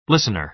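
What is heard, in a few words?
"listener", American English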